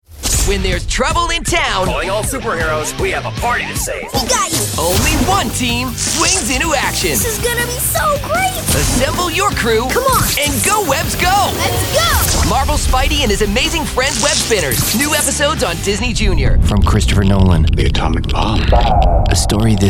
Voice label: Promo

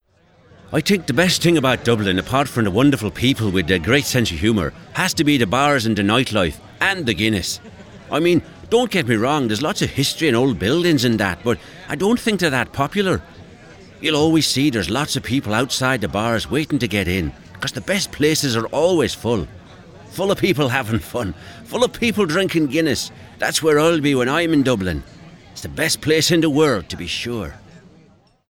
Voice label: Dublin accent

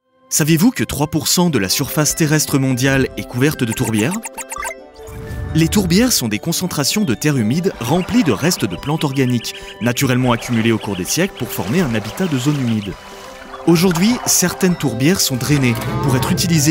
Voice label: informative (FR)

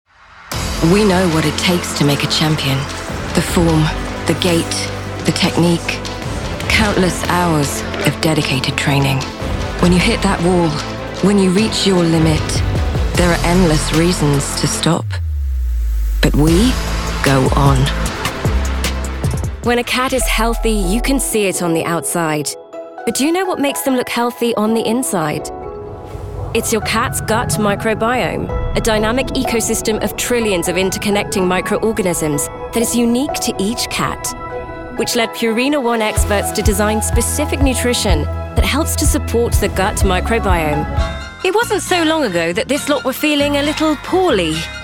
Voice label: Commercials, en-NZ

